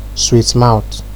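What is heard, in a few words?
"sweet mouth", Nigerian Pidgin, male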